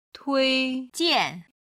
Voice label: "tuijian", Chinese